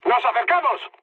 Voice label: near waypoint, mood high, speech; War Thunder

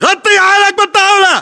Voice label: order cover table, battle chatter, english

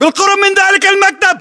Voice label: landmark near desk, battle chatter, english

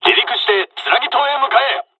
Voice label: dialogs japanese; War Thunder

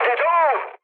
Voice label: aircraft destroyed, speech cze, mood low; War Thunder

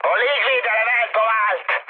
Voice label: damaged aa, mood high, speech hun; War Thunder

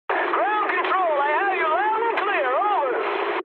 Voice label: eng chat, speech eng

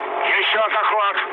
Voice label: bomb failure, mood low, speech ru; War Thunder

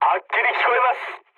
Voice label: jp chat, speech jp

